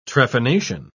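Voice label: "trephination", British English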